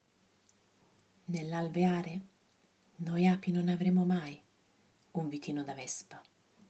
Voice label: Italienisch